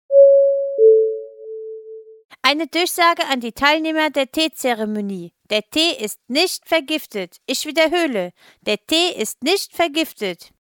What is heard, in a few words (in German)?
durchsage